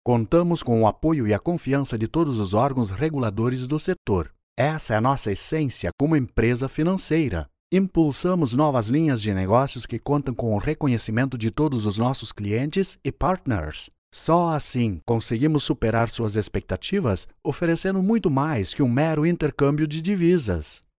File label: portuguese brazilian documentaries